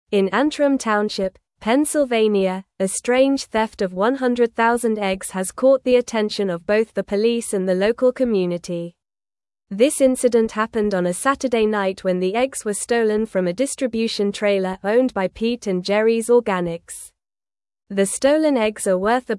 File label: English Upper Intermediate NORMAL Reading; English Newsroom; Massive Egg Theft Leaves Pennsylvania Authorities Searching for Clues